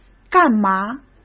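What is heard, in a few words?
"干吗", Mandarin Chinese; chinese flashcards; hsk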